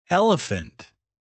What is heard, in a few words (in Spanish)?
elefante inglés; palabras ingles infantil